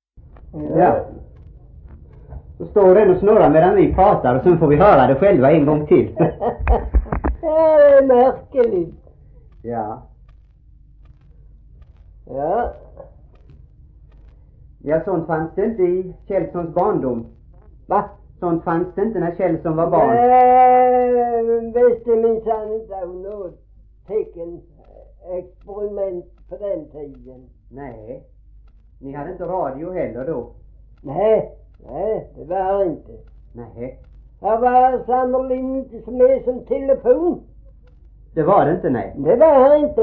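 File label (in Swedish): Gråmanstorp Skåne, svenska sagor dialekter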